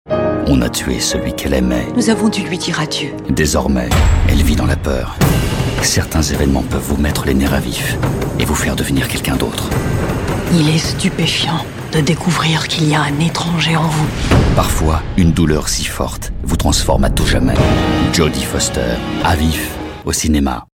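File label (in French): BANDE ANNONCE, voix; A Vif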